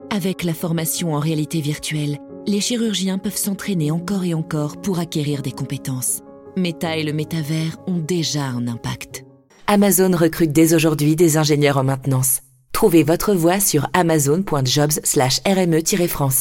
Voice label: french commercial; native French VO artist